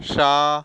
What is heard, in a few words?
"sha", consonants